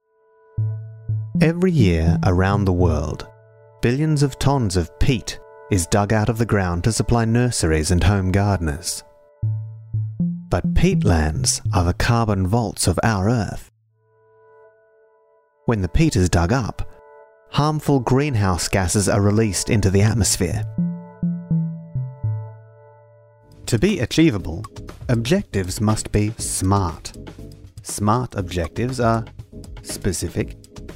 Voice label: natural warm clear male voice over tale